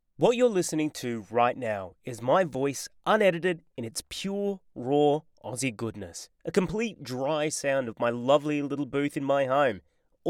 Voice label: Dry